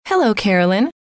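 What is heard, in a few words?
"hello", female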